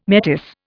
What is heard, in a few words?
"metis", Indian